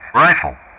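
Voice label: "RIFLE", English; Ka-50; Player Messages; DCS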